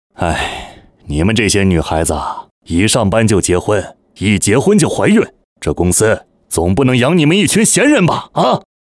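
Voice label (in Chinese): 角色 男 中年 无奈生气 老板; 角色-男27-中年-无奈生气-老板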